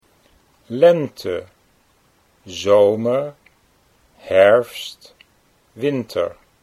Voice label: "lente zomer", Dutch

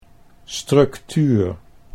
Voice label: "structuur", Dutch

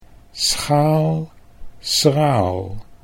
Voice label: schaal schraal, Dutch

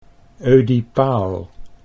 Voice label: "oedipaal", Dutch

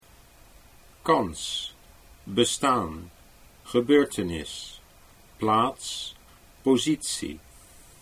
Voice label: kans bestaan, Dutch

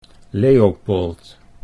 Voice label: "Leopold", Dutch

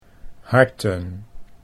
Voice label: "harten", Dutch

